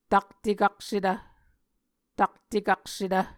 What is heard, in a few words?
"taktekaksetv", Muscogee